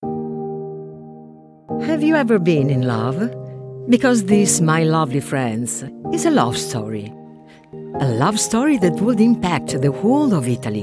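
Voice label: Italian, accented English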